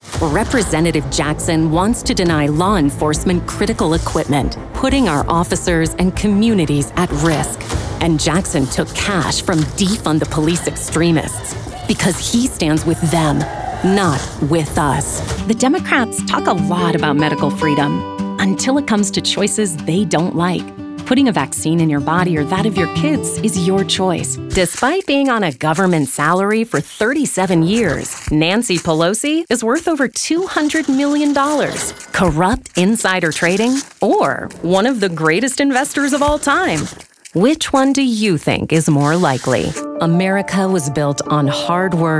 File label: Republican Voiceover, Political GOP Voices English